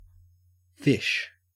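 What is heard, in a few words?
"fish", Australian English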